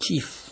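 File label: "chief" Nigerian Pidgin, male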